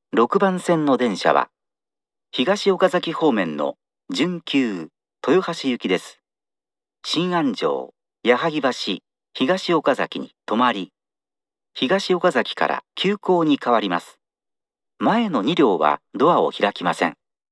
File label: arrival semi, st announce; toyohashi; higashiokazaki; meitetsu; chiryust